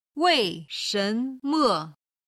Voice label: "wei4shen2me5", chinese